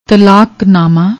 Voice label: "tallaknaama", Punjabi; ਤਲਾਕਨਾਮਾ

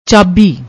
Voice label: "chaabi", Punjabi; ਚਾਬੀ

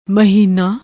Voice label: "mahina", Punjabi; ਮਹੀਨਾ

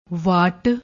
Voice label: "wattt", Punjabi

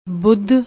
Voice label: "budh", Punjabi

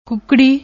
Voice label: "kukdi", Punjabi; ਕੁੱਕੜੀ

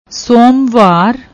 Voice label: "somwar", Punjabi

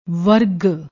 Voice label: "werg", Punjabi

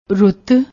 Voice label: "rutt", Punjabi

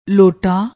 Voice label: "lotta", Punjabi; ਲੋਟਾ

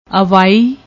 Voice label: "awaai", Punjabi; ਅਵਾਈ

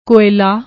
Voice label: "koyla", Punjabi

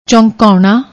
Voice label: "chonkaouna", Punjabi; ਚੌਂਕਾਉਣਾ

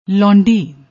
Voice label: "londi", Punjabi; ਲੌਂਡੀ

